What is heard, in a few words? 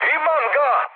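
Serbian player killed enemy mood high, speech; War Thunder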